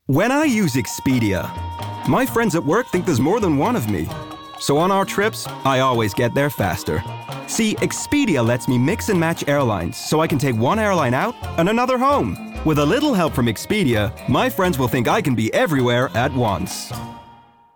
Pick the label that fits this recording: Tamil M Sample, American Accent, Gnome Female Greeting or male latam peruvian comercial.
American Accent